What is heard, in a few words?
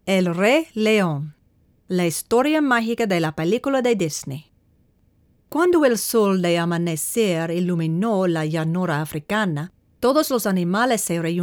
Child's Story; Lion King